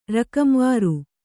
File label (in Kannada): "ರಕಂವಾರು", Kannada